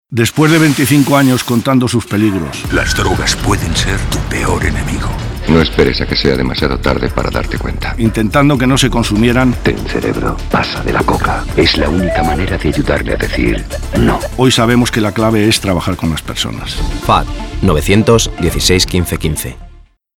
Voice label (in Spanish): Radio; Campañas Fad